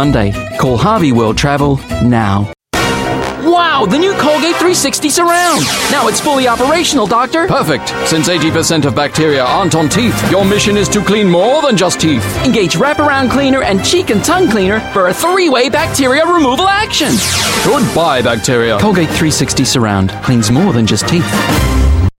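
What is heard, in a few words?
New Zealand English commercials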